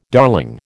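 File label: "darling", American English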